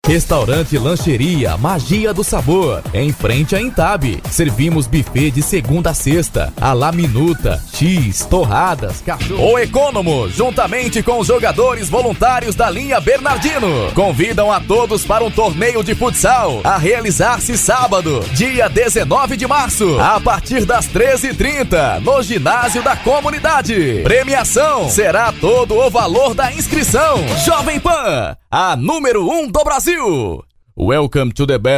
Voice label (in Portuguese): locutor